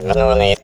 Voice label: Trooper Voice

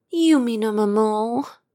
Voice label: Sad; Good Pizza, Great Pizza; Android; Angelica

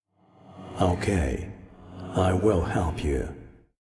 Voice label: Voice Dialog; We Are the Dwarves

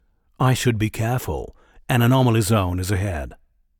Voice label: Voice Monolog; we are the dwarves gamerip 2016

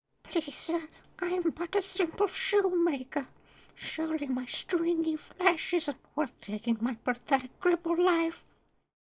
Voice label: Male Beg

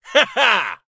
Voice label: Male Fight Appear; Mighty Party; Android

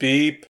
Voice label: Voices urgent; gamerip; the looker

